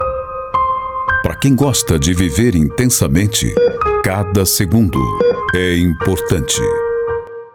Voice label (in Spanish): Institucional